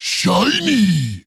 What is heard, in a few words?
Male Trogg Attack